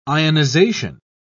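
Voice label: "ionisation", English, British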